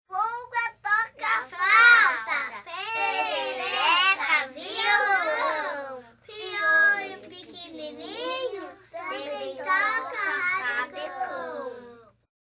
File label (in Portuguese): pulga toca flauta, parlendas brasileiras